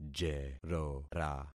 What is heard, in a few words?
"jerora", concat syllables; Affixing experiment